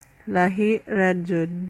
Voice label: Cebuano